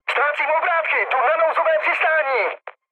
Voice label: damaged engine, speech cze, mood med; War Thunder